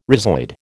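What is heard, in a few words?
"rhizoid", American English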